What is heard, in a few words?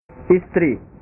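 "isteri", Indonesian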